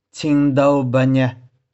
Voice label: "ching1dou3beh4nih4", 玉環

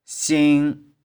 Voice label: "shing1", 玉環

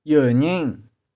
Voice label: "ieu2ning6", 玉環

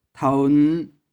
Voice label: "thou3n5", 玉環

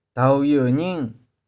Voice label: "dou3ieu2ning6", 玉環

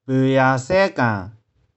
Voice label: "poe3ya3sae1kang1", 玉環

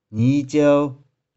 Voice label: "ni3ciou3", 玉環

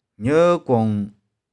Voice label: "nieu1kung1", 玉環

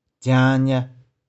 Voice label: "jiang1nih4", 玉環

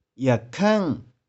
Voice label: "ih4kheng6", 玉環

